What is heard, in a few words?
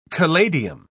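"caladium", English, British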